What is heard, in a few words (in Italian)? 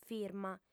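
"firma", lingua sarda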